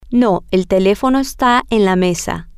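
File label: Spanish, female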